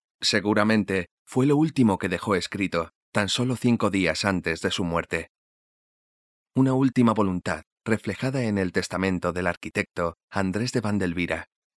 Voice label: locutor castellano spanish voiceover; Spanisch Sprecher

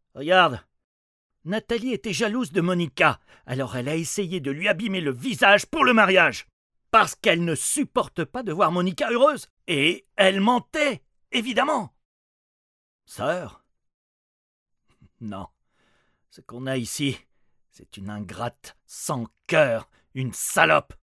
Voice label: locutor francia french voiceover; locutores franceses

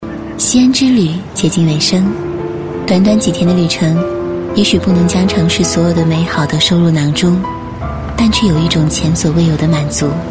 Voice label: locutora china chinese mandarin voiceover

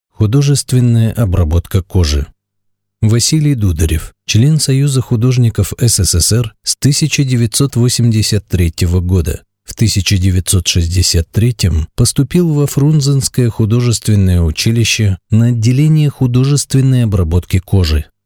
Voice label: locutor ruso russian voiceover

